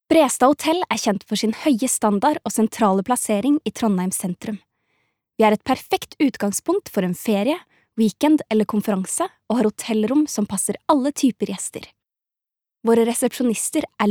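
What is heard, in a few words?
Norwegian informative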